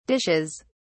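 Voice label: "dishes", British English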